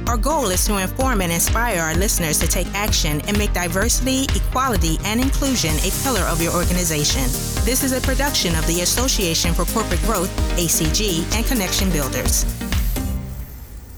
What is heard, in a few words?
podcast; p b diversity matters middle market podcast